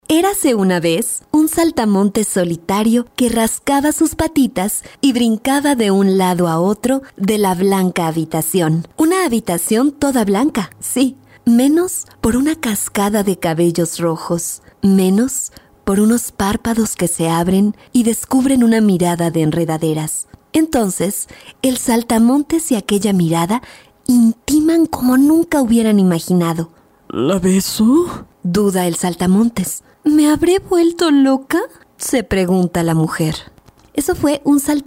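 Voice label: Latin American Mexican female narration; voice demos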